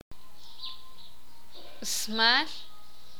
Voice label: "pluma", sakapulteko

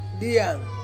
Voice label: "ang", Ansus; to eat

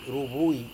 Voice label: "ruvui", serewen

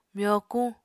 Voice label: "myokuñ", Apatani

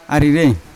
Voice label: "arire", Yawa; liver

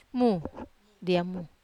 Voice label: "mu", Wamesa

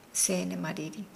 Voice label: Nukuoro; coins

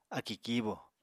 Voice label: "akì kíibò", Apatani

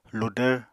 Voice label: "ludvr", Apatani

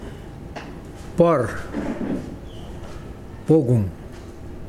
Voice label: Mokilese cave

